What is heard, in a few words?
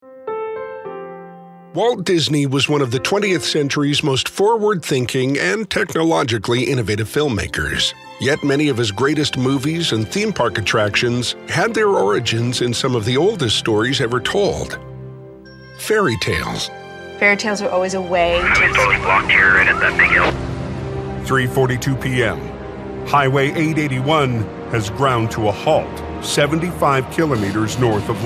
english american tv shows